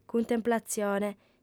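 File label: "cuntemplatzione", female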